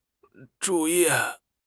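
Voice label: "zhu4 yi4", Chinese; HSK; HSK3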